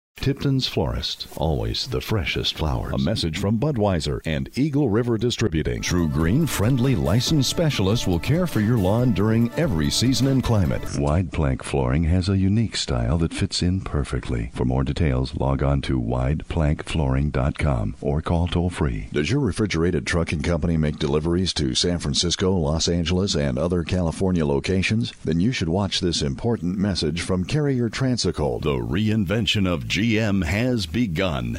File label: Commercial Male